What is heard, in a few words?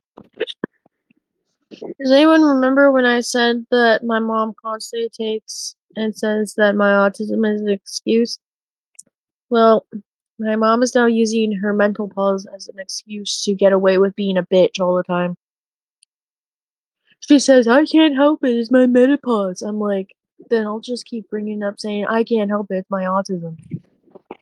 voice message